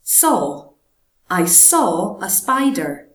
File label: saw, english language common words; Castleview Primary School